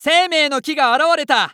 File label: Announce Tree Of Life Appear, JPN; Eternal Return